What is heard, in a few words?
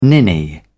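"ninny", British English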